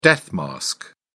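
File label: "death-mask", British English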